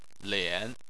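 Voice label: "lian", Chinese, third tone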